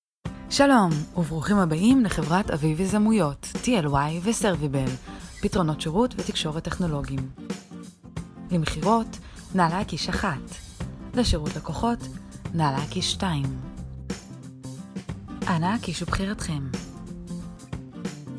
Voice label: Female, Hebrew